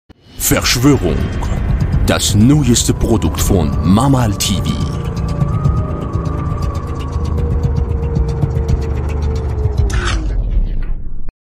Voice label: german